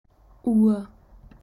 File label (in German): "Uhr", German